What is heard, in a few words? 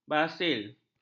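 "basil", Malay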